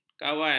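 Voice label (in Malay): "kawan", Malay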